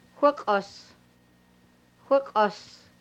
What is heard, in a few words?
my neck, hupa